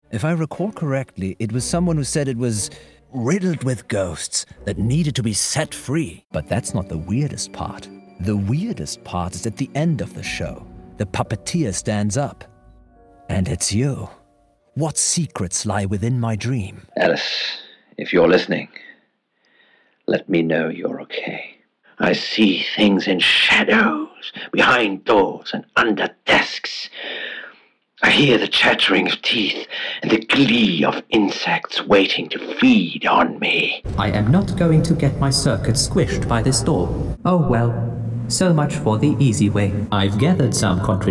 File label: English Video Games Voicereel